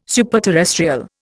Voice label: "superterrestrial", Indian

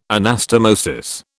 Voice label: "anastomosis", British

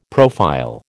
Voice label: "profile", American

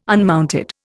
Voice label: "unmounted", Indian

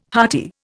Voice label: "hearty", Indian

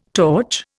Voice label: "torch", Indian

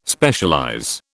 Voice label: "Specialize", British

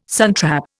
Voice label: "suntrap", Indian

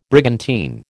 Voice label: "brigantine", American

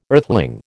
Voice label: "earthling", American

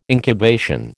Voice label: "incubation", American

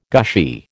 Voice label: "gushy", American

